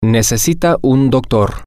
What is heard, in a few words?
sp he She Needs A Doctor, M